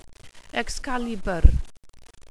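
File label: "excalibur", welsh pronunciations; Wales/welsh names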